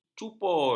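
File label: "cupul", Malay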